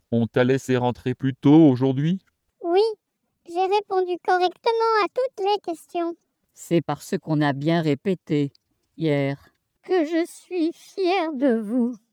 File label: dialog ircam synth trans